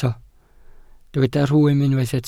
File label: "rhwym", Welsh